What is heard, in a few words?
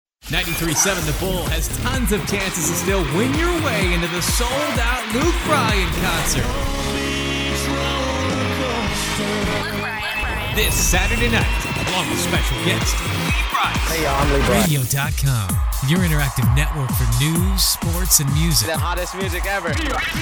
Imaging Male